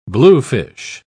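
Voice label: "bluefish", British English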